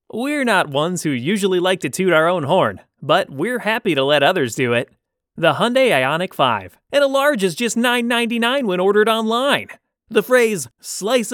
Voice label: Commercial Sample